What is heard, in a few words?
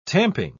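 "tamping", British English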